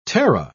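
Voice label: "terra", English, British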